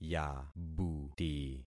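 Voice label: "yabuti", concatenated syllables; Affixing experiment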